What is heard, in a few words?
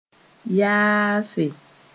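"yaafe", Mooré; pardon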